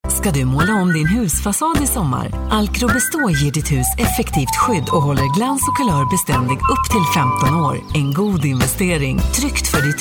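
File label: Swedish commercial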